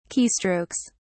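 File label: "keystrokes", British English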